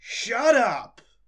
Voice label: start, voices angry pursuit; DanisRace